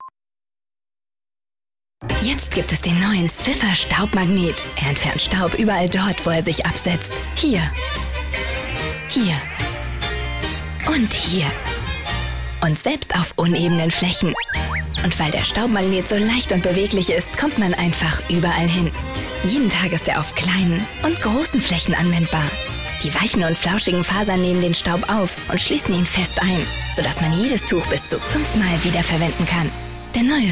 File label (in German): soft; Swiffer Staubmagnet